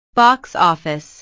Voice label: "box office", inglés

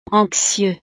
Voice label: "anxieux", francés; estados animo

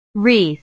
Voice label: "wreath", inglés

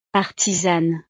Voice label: "artisane", francés; profesiones

